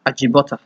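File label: "ajibota", Nigerian Pidgin, male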